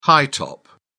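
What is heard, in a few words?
"high-top", English, British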